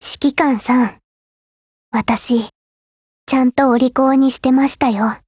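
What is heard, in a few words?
HELLO Japanese, Voice; GF Alarm; HS2000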